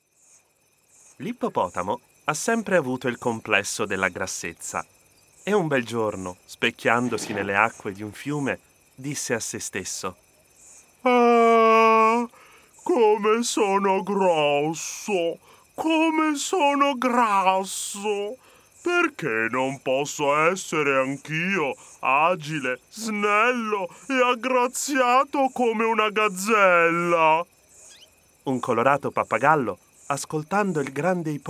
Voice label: fairytale Italian